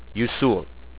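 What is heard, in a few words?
"yusool", spoken word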